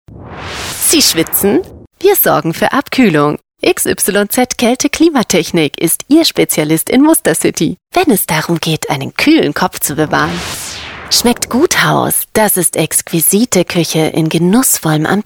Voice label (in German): schmunzelnd genussvoll